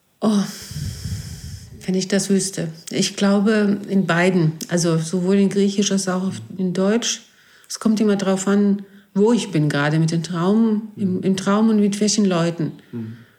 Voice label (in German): griechisch